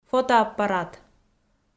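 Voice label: "фотоаппарыт", Komi, female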